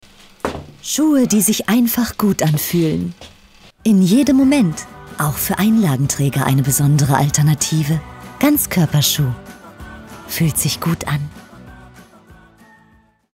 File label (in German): werbung ganzkoeperschuh